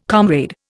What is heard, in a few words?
"comrade", Indian